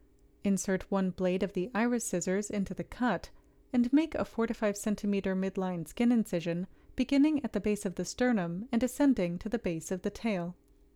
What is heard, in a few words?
Voiceover